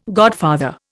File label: "godfather", Indian